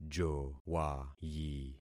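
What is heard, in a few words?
"jowayi", concatenated syllables; Affixing experiment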